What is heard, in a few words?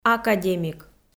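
"академик", female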